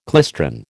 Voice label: "klystron", American

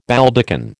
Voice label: "Baldachin", American

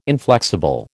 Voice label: "inflexible", American

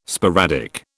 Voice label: "sporadic", British

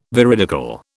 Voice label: "veridical", British

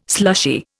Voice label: "slushy", Indian

